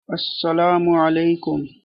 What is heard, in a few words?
hello, pashto